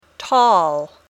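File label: "tall", English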